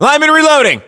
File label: US inform reloading, battlechatter, english